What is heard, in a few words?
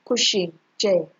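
"cuchinchair", Nigerian Pidgin, female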